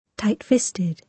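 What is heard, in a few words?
"tight-fisted", British English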